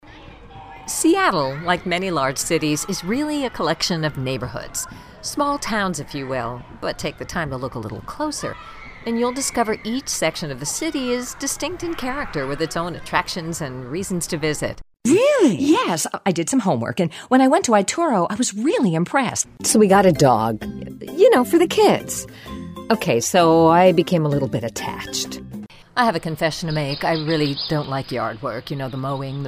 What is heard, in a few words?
Conversational